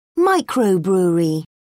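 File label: "microbrewery", British English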